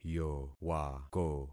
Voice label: "yowako", concat syllables; Affixing experiment